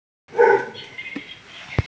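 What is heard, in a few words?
"lao3shi1", female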